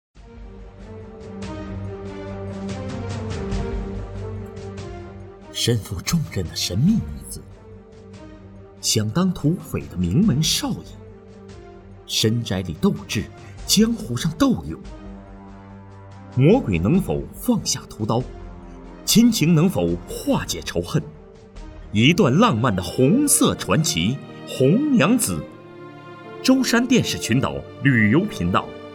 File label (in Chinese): 电视台节目预告; 舟山